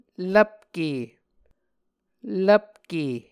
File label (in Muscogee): "lvpke", Muscogee